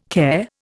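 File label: "care", Indian English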